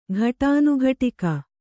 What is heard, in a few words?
"ಘಟಾನುಘಟಕ", Kannada